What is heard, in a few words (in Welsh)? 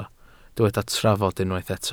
"trafod" Welsh